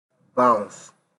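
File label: "bounce", Nigerian Pidgin, male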